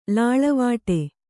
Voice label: "ಲೀಲೆವಡೆ", Kannada